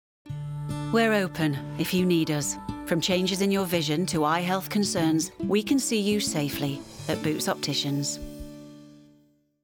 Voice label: Advertising; Boots Opticians We Are Open; en-GB